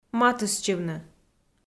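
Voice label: "матыстчывны", Komi, female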